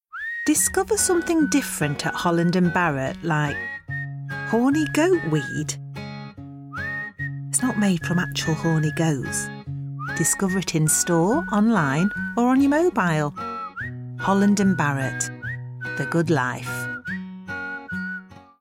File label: Honey VO